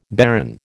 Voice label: "barren", American